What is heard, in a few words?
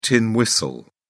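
"tin whistle", British English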